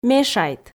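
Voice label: "мешайт", Komi, female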